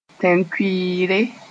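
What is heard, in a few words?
"tẽn-kʋɩɩre", Mooré; dryness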